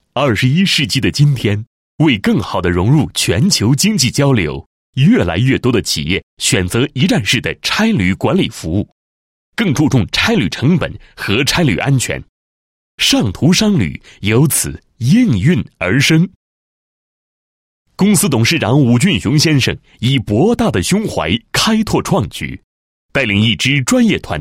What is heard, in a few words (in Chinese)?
男 企业 宣传; 尚途商旅宣传